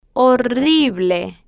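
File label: "horrible", slow, spanish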